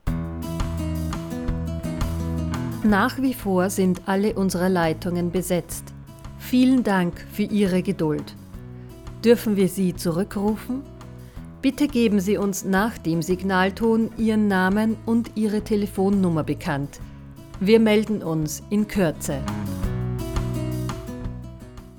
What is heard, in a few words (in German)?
Text mit Musik